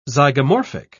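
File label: "zygomorphic", British English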